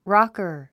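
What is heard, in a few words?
"rocker", English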